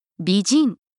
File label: beautiful woman "bijin", Japanese pronunciation